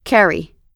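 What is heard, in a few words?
"carry", US English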